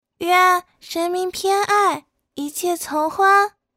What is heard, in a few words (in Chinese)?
萝莉音短句配音